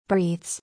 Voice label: "Breathes", English

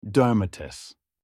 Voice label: tomato in turkish

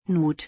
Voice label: Color nude in German

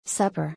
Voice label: "Supper", English; meals in english

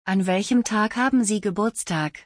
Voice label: What is your birthday in German; تولدت مبارک به آلمانی

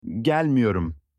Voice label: i wont come in turkish

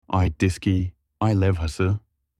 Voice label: full moon in turkish

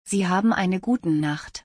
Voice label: I wish you a good night in German

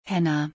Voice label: Henna color in German

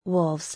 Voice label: "Wolves", English

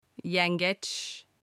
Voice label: crab in turkish

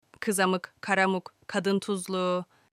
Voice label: barberry in turkish

